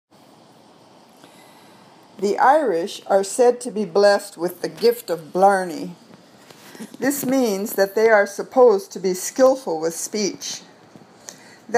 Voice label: Reading Drill